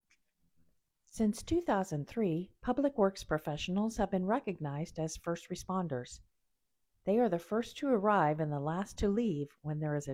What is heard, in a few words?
Female Voice